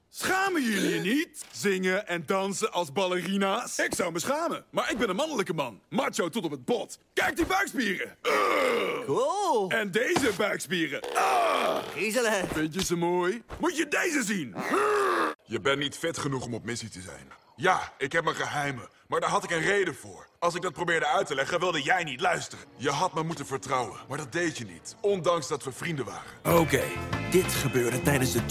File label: Dubbing